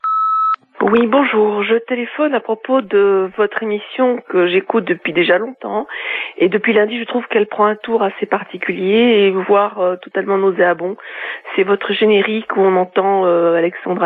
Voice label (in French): répondeur; Derapage Alexandre Adler